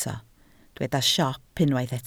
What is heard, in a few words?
"siop", Welsh